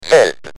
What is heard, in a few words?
"Z", Speak & Spell UK